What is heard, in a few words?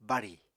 "bárì", Apatani